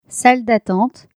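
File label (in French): "salle d attente", French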